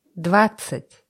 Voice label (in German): russische zahl 20; Zahlen auf Russisch